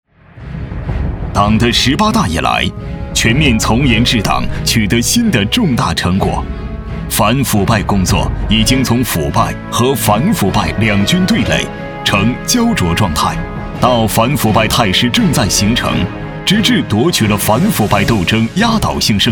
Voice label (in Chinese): 男,严肃紧张,汇报; 扫黑反腐汇报